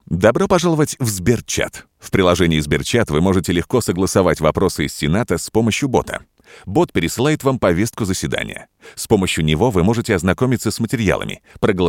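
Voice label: russian male e-learning; voice demos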